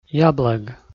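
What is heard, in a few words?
"яблӧг", Komi-Zyrian, male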